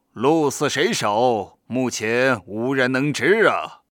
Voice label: 男 游戏角色配音

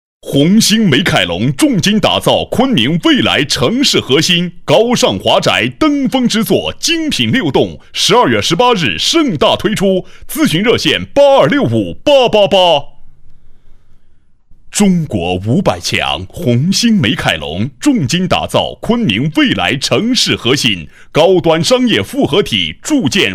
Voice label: 促销广告 录音,男; 干音; 新闻广告专题颁奖; 红星美凯龙

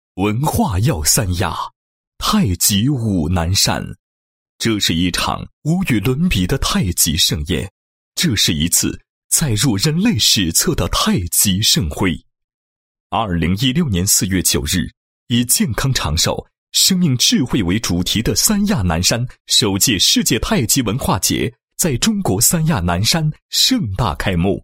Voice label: 男 旅游宣传; 三亚南山首届世界太极文化节

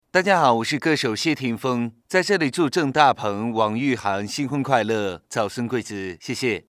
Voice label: 男 模仿 祝福

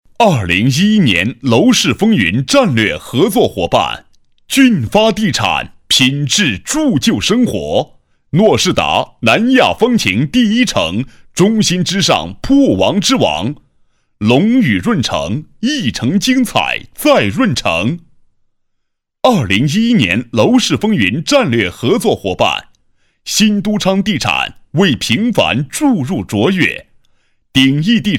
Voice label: 颁奖广告, 男

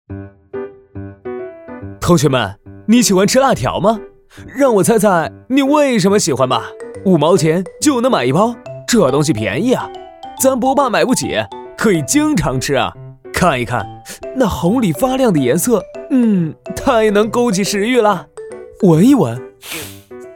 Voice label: 男 飞碟说 辣条

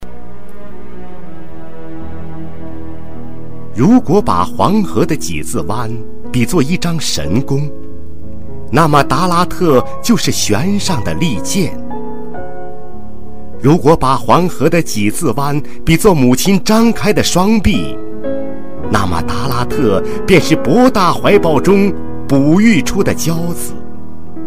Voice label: 旅游推介片专题, 国语男声配音

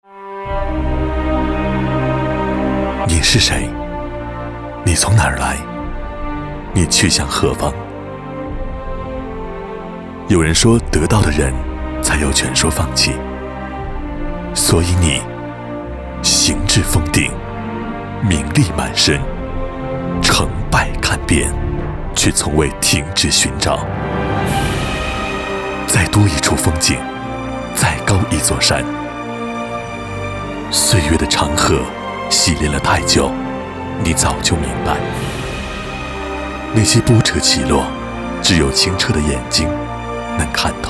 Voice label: 男 房地产广告, 国语男声配音; 交广主播; 万科至臻系 叩问生命

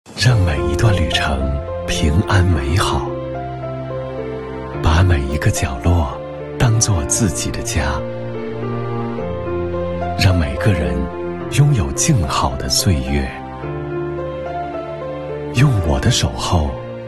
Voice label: 公益广告; 因热爱而奋斗

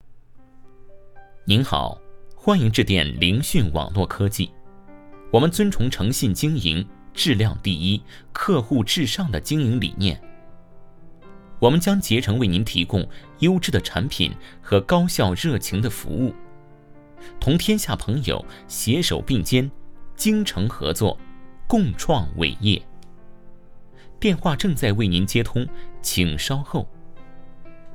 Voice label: 彩铃; 凌讯网络科技

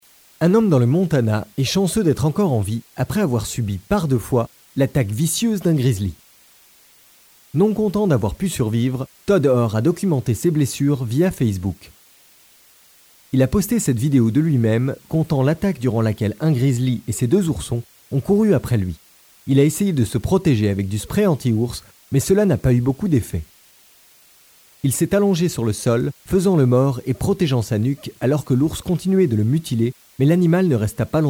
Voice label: 外籍法语男 新闻播报 news in French

